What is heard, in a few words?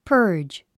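"purge", English word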